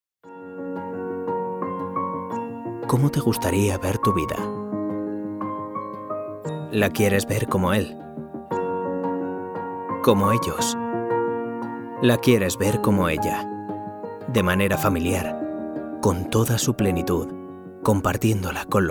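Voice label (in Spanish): corporativo España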